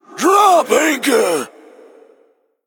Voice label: Male Night Elf Ghost Attack